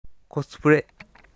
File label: "kosupure", Japanese